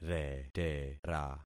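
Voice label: "retera", concatenated syllables; Affixing experiment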